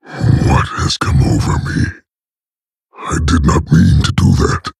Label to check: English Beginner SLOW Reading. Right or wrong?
Wrong